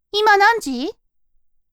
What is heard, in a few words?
woman